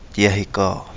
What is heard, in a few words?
"Di Ahi Gaa", Kapingamarangi